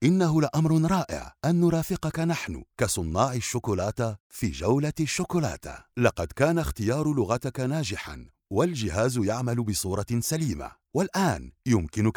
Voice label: Arabic narration

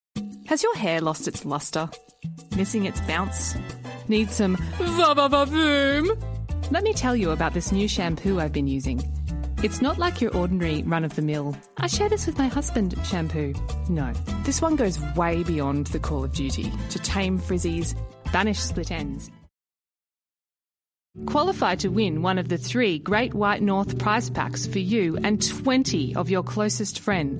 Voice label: English Australian commercial; dubladora australiana de Brisbane, Austrália

